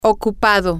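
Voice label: Spanish, female; busy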